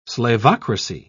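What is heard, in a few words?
"slavocracy", British English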